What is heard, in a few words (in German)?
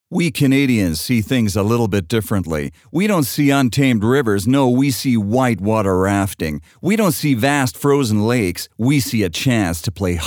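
Canadian Kommentar Dynamisch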